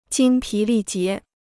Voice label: "精疲力竭", Chinese

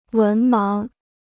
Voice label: "文盲", Chinese

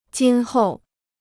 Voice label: "今后", Chinese

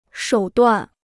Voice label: "手段", Chinese

